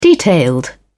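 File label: "detailed", British English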